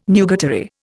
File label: "nugatory", Indian